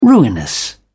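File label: "ruinous", English, British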